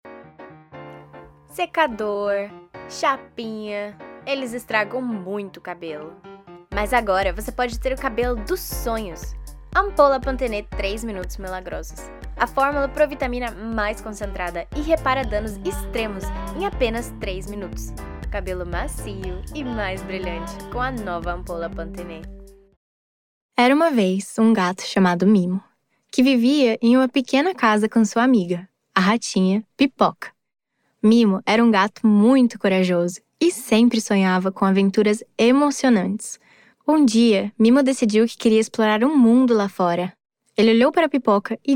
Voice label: Portuguese, Portuguese-Brazilian, Female, Home Studio, Teens-30s